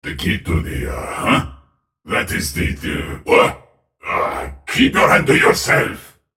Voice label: joke, en US; Viktor; Character Voices - English

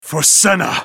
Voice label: ultimate effort; Lucian

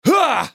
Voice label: spellcast effort; Jayce

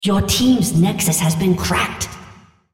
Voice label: female, Nexus; Odin

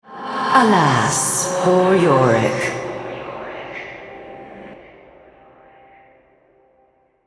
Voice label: altar capture, female, en US; Yorick

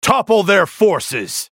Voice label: attack, en US; JarvanIV

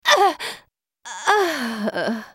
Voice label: dying, en US; MissFortune

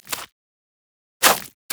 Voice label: human emote taunt; Elise

